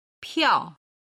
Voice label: "piao4", Chinese; HSK2級